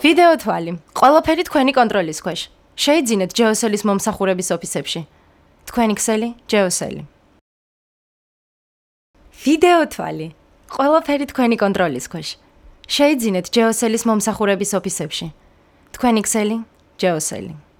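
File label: georgian female commercial; voice demos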